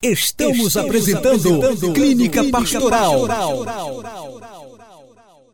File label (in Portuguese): vinheta